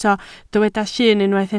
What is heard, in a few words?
"llun", Welsh